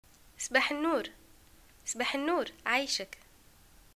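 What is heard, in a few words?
greeting good morning, Tunisian Arabic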